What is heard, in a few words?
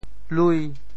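"lui5", Teochew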